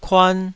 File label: "kuan2", Taiwanese syllable